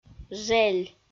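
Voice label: "жель" Komi, female; audio dict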